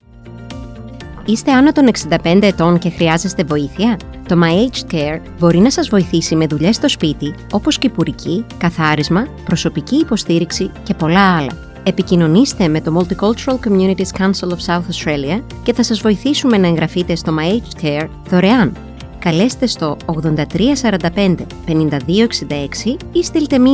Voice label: Greek promo; EnCompass; MCCSA